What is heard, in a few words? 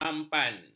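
"mampan", Malay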